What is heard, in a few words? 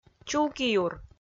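"чулкиюр", Komi, female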